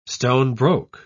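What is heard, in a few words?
"stone-broke", English, British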